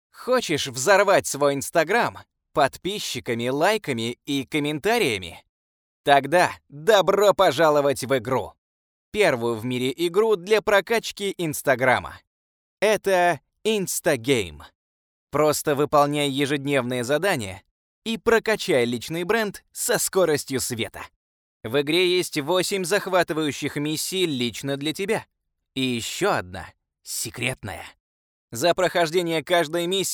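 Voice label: zg prezentaciya Ru, Russian presentation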